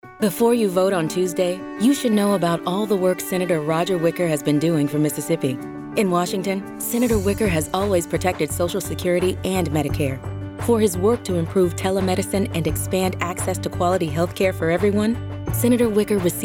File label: Pol Radio; Redprint Mississippi Wicker